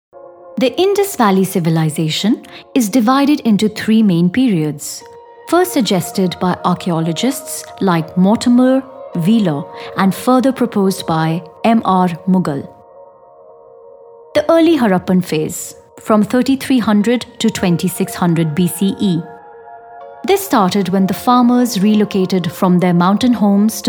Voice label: English VO